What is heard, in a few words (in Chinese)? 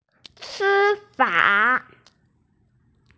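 "si1fa3", Mandarin Chinese, female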